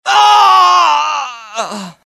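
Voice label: death, dialogue generic Italian; mohaa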